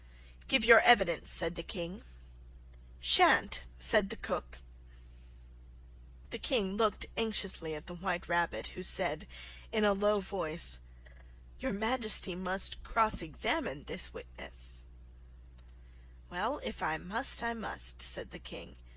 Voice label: alice in wonderland slow